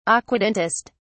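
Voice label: "aquatintist", British English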